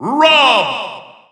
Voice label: English Announcer; R.O.B.; SSB4-SSBU